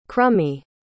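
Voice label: "crummie", English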